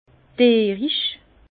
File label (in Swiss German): "dierisch", Bas Rhin; vin; animal